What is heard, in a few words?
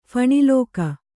"ಫಣಿಲೋಕ", Kannada; phaṇi lōka; noun